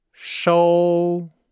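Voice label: "shou", Chinese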